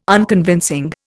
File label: "Unconvincing", Indian